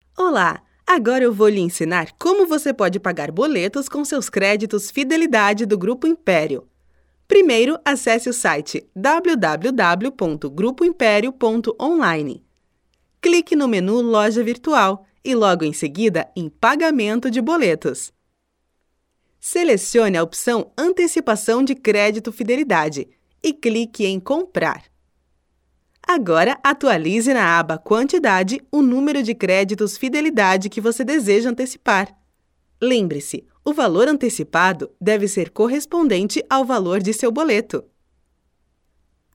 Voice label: e learning